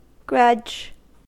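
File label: "grudge" US English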